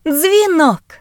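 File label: bell, Ukrainian; gcompris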